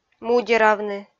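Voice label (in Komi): "мудеравны", Komi, female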